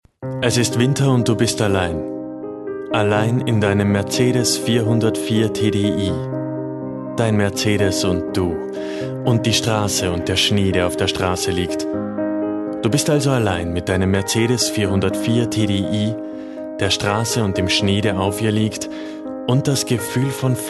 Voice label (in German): Werbung; Mercedes